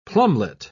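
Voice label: "plumelet", British English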